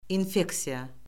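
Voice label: "infeksiya", Azerbaijani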